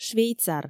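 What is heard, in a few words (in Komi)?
"швейцар", Komi, female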